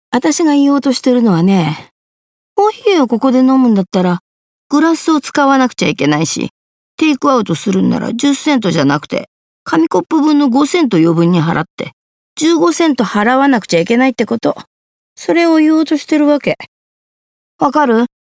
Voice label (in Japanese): 中年